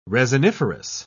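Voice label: "resiniferous", British English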